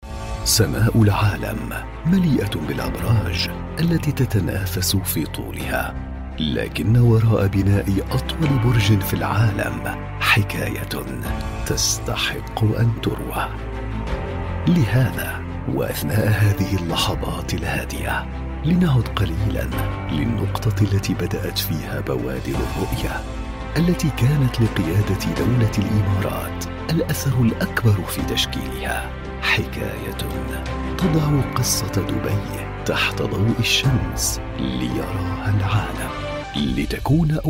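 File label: Arabic Narration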